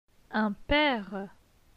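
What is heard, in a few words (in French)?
"Un pere", French; phonetique; les voyelles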